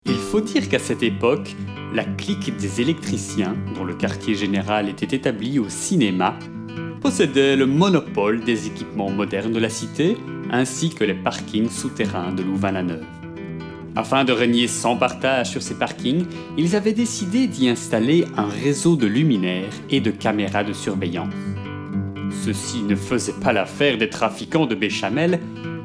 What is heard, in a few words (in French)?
NARRATION